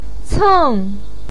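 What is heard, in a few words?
"ceng" fourth tone, Mandarin Chinese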